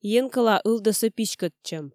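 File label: "енкӧла ылдӧсӧ писькӧдчӧм", Komi, female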